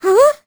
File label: vo confused; Crypt of the NecroDancer